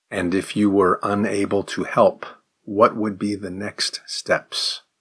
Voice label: advanced question slow